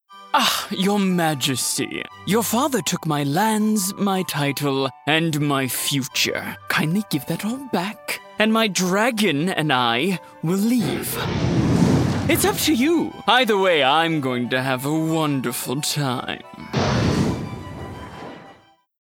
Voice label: Transatlantic Sultry Antihero